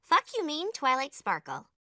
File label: neutral; twilight sparkle